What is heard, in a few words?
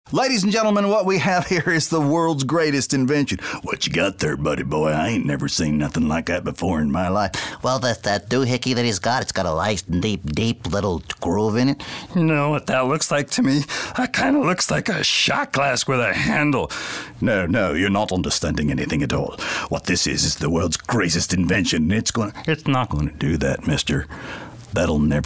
Characters Male, commercial voiceover